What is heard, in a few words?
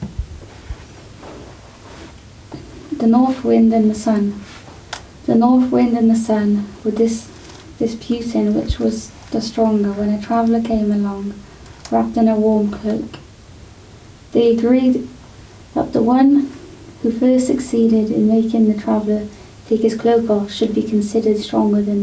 L1 English; LnNor Corpus; Controls English